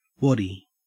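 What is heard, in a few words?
"waddy", Australian English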